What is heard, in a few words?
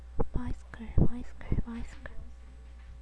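"bicycle", spoken word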